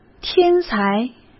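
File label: "天才", Mandarin Chinese; chinese-flashcards; hsk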